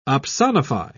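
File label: "opsonify", British English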